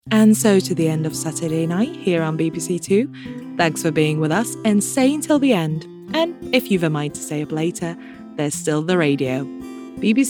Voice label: female English with undetectable accent continuity; voices